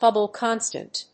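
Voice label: "Hubble constant", English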